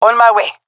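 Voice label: on my way, fem; player vo; Counter Strike Model Pack; CS:GO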